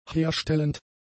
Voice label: "herstellend", German; adjectives